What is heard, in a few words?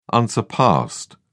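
"unsurpassed", British English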